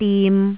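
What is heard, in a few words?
"dim3", Cantonese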